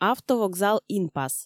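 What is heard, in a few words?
"автовокзал инпас", Komi, female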